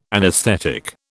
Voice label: "anaesthetic", British